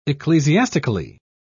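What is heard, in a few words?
"ecclesiastically", British English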